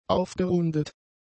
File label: "aufgerundet", German; adjectives